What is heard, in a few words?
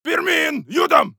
neutral